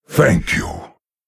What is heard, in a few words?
Male Lich Thanks